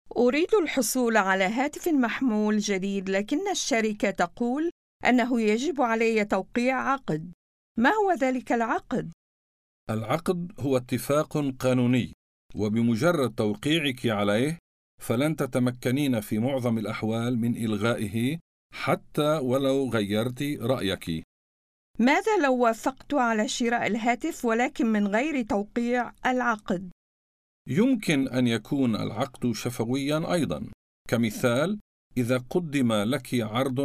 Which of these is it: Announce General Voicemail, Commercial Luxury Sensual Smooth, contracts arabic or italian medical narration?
contracts arabic